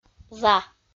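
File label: "за", Komi-Zyrian, female; audio dict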